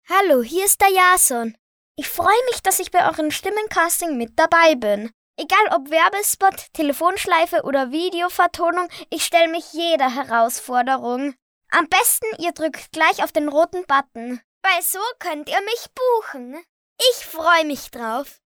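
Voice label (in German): Kinder Neutral, Kinderstimmen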